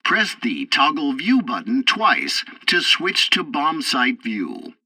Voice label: tutorial bomber sight, english; War Thunder